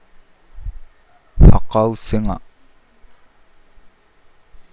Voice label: "cloud", Nukuoro